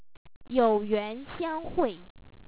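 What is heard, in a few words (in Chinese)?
"you yuan xiang hui", Chinese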